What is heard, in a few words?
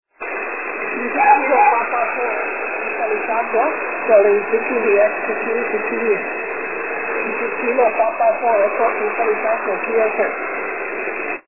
6m ssb